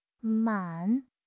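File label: "man3", Mandarin Chinese; HSK; HSK4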